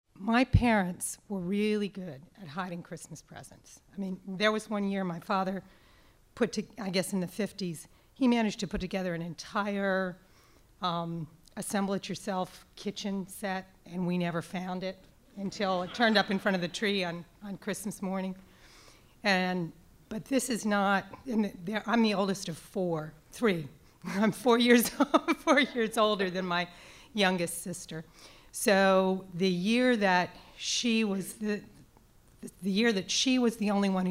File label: audience storyteller; Stoop Story Archive